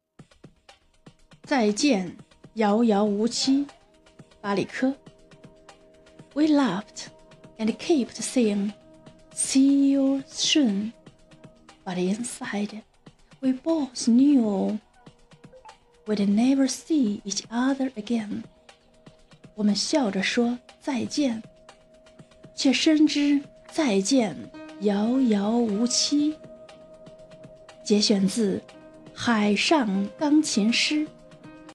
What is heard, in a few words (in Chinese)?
中英文